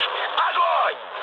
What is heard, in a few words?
attack position, mood high, speech ru; War Thunder